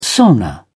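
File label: "sauna", English, British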